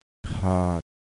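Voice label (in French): "hook", ton bas, thailandaise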